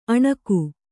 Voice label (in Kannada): "ಅಣುಗಿ", Kannada